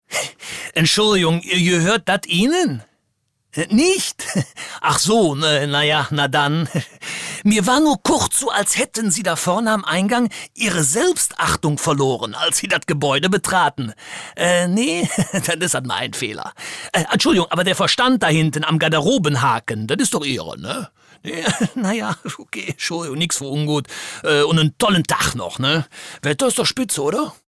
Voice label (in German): Dialekt; LOFT VOICES